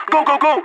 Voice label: radio lets go; player vo leet